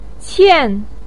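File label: "qian", Mandarin pinyin, tone 4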